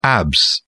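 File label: "abs", English, British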